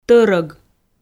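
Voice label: "тӧрӧг", Komi, female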